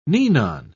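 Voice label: "ninon", British English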